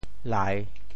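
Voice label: "lai3", Teochew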